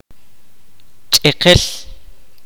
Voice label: "wet", kaqchikel